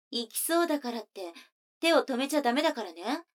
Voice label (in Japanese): 女の子台詞